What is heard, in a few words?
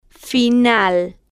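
"final", slow, Spanish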